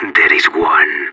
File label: radio enemy spotted, separatist; Counter Strike; CS:GO